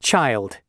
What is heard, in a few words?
"Child", announcements male